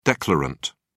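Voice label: "declarant", British English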